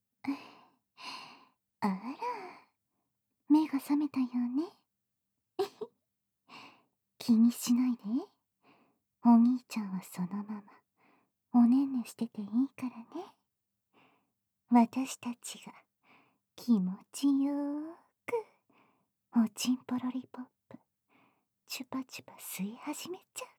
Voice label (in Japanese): 目覚ましアラーム音声; ヘンゼルのえっちな…